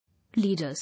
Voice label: "Leader", English

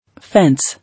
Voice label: "Fence", English

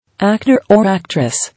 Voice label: "Actor; Actress" English

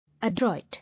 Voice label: "Adroit", English

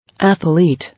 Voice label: "Athelete", English

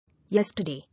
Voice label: "Yesterday", English

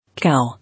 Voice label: "Cow", English

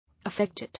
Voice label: "Affected", English

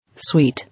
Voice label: "Sweet", English

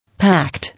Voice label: "pact" English

